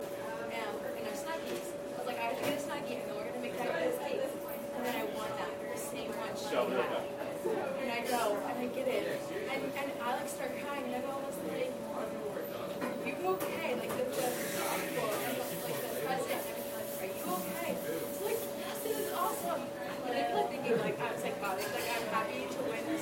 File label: Missy Megaphone Sample